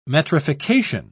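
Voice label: "metrification", English, British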